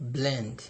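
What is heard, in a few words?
"lem", Nigerian Pidgin, male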